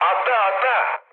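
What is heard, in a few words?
Turkish bailout, mood med, speech; War Thunder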